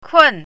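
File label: "kun4", Mandarin Chinese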